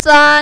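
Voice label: "zan" first tone, mandarin, female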